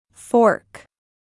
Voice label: "fork", English